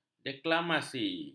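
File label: "deklamasi", Malay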